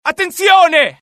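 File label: alert, Italian; mohaa; dialogue; sighted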